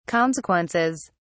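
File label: "consequences", British English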